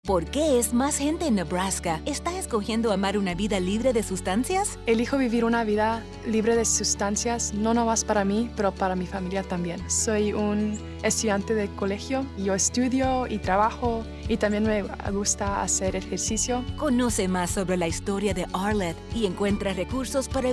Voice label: Radio Spanish; Choose You Campaign